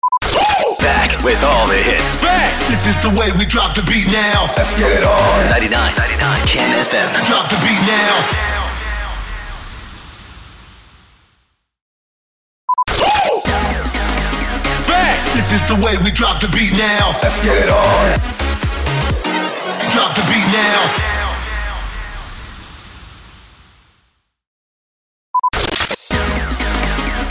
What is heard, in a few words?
SWEEPER MUSIC REJOIN